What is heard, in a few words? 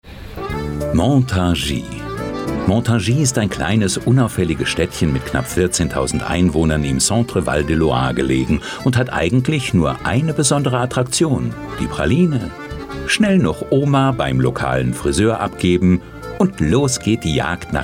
cheerful brisk hot